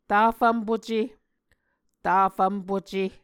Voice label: "tafvmpuce", Muscogee